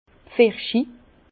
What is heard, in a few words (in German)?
"pfirsich", Bas Rh; vin; pêche